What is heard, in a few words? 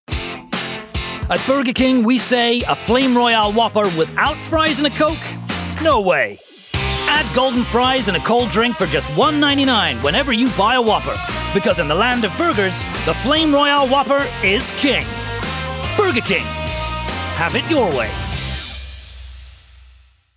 Commercial AMERICAN; Burger King